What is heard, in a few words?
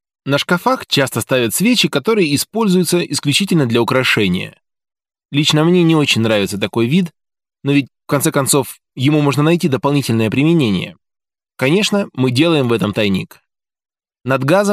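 russian male; lektoring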